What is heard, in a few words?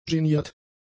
"geniert", German; adjectives